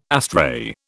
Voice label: "astray", British

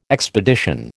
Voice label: "expedition", American

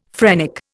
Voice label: "phrenic", Indian; Dictionary

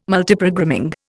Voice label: "Multiprogramming", Indian

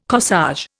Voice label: "corsage", Indian

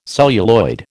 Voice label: "celluloid", American

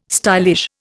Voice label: "stylish", Indian

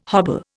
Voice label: "hobble", Indian

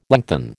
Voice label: "lengthen", American

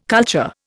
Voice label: "culture", Indian

